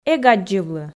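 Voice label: "эг аддзывлы", Komi, female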